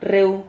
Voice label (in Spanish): "rreu", Silabas